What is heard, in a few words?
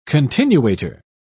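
"continuator", British English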